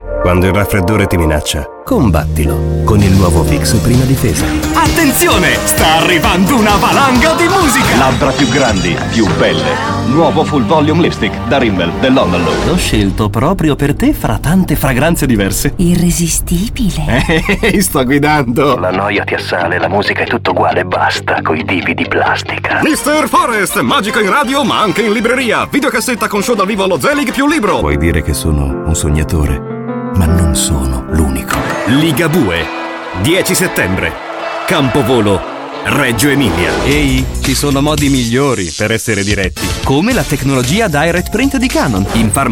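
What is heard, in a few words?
Italian Commercial; GoLocalise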